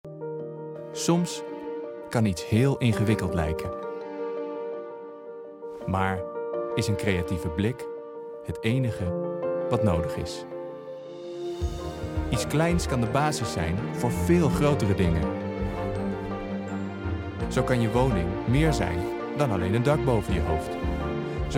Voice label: corporate demo